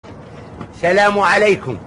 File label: Salam Valekum Man Voice